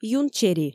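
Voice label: "юнчери", Komi, female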